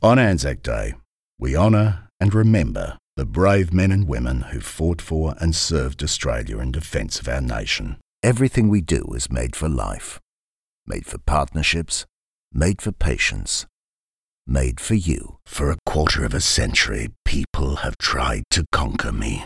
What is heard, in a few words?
Deep ballsy Guy